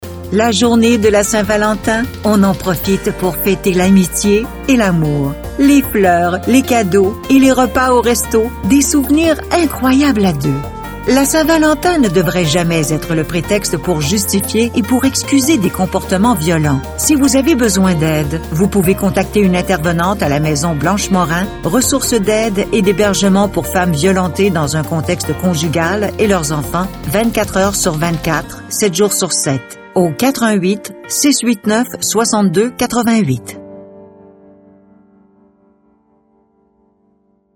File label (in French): Voix, musique; St Valentin